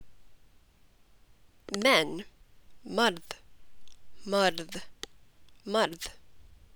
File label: "Men", Punjabi